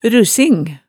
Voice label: "russing", Hemsedal

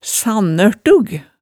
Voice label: "sannørtug", hemsedalsmaalet

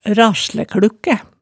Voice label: "raslæklukkæ", Hemsedal

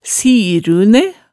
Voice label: "sirune", hemsedalsmaalet

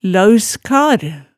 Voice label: "laauskar", hemsedalsmaalet tale

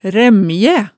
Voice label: "ræmjæ", Hemsedal

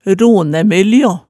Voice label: "ronæmyljo", Hemsedal

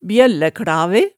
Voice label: "bjøllæklave", hemsedals maalet tale

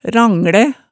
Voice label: "ranglæ", Hemsedal

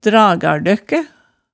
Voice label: "dragardøkkæ", hemsedalsmaalet

